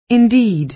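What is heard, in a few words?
"indeed", American English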